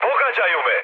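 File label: Serbian aircraft damaged mood med, speech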